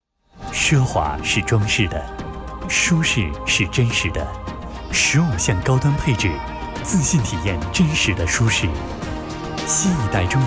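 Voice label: Mandarin Chinese, China, male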